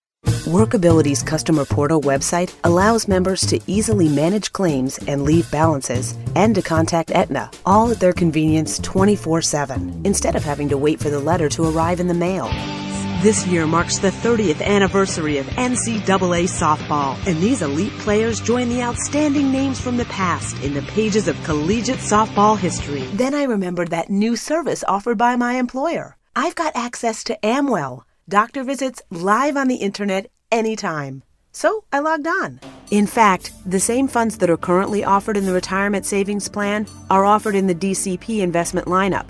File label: Narration